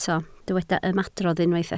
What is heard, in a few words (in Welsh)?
"ymadrodd", Welsh